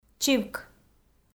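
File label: "чивк", Komi-Zyrian, female; kpv audio dict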